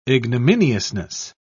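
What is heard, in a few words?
"ignominiousness", English, British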